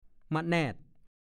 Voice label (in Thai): "มะแนด", ภาษาโคราช; ฟักพันธุ์หนึ่งมีผลยาว